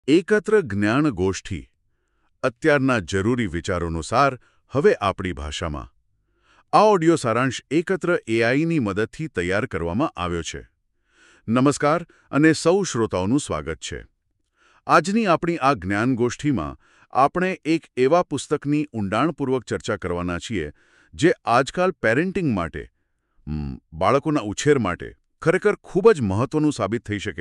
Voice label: gujarati; the whole brain child